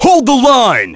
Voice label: Vox Skill, en; kingsraid; voices heroes